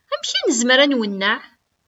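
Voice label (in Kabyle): Amek i nezmer ad nwenneɛ?, Kabyle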